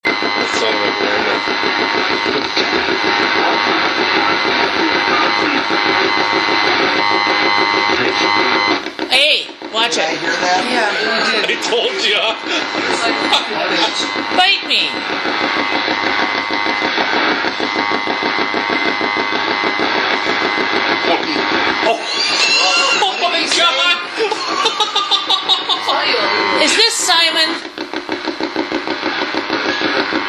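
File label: radio voices, recorded "live"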